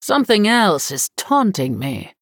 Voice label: Female Human ERROR TAUNT